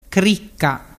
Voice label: "cricca", dialettu